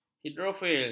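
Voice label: "hidrofoil", Malay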